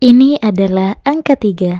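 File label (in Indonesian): "Tiga", Indonesian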